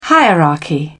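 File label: "hierarchy", English, British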